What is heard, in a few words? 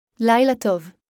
Hebrew Good night; Greetings